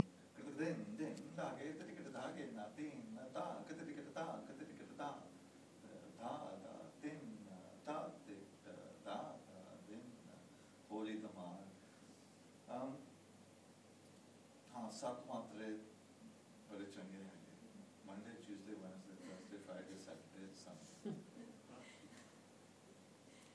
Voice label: talking about music in punjabi; Talvin Singh